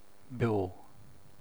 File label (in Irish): "beo", gaeilge; bʲoː; bʲjoː